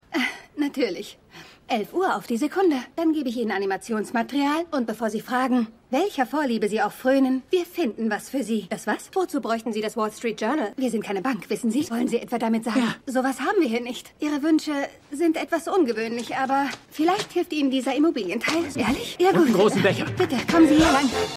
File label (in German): Empfangsdame; Suits